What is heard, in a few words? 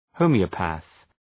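"homoeopath", English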